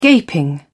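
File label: "gaping", English, British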